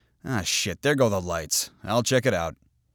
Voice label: American